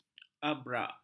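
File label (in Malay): "abrak", Malay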